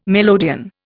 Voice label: "melodeon", Indian